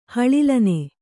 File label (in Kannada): "ಹಳಿಲನೆ", Kannada